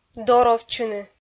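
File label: "доровтчыны", Komi, female; kpv audio dict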